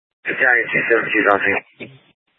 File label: Fire Dpts VHF 154.800 Patch, scanner